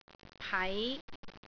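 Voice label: "pai5", Cantonese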